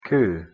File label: "coo", Scots